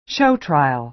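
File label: "show trial", American English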